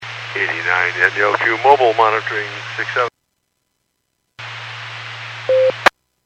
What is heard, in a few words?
Skywarn 146.67; Stevens Point